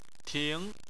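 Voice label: "ting", Chinese, second tone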